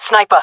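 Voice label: sees sniper, female voice; player vo; Counter Strike Model Pack; CS:GO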